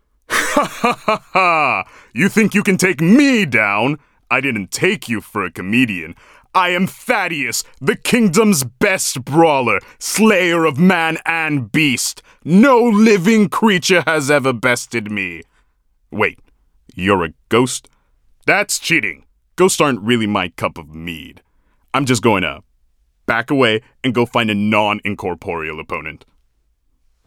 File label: barbarian, voice